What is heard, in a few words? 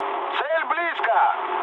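near waypoint, mood med, Russian; War Thunder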